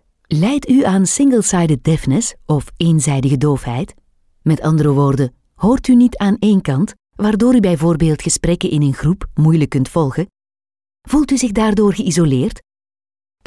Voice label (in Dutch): E-learning; Eenzijdige doofheid; Female Flemish voice; Stemactrice; Voice over